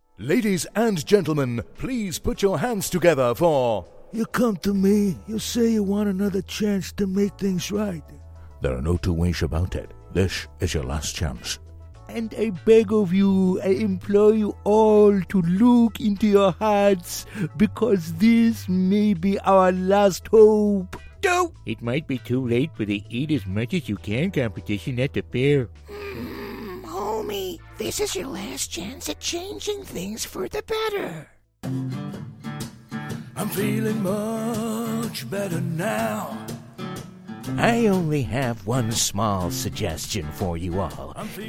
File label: variety showreel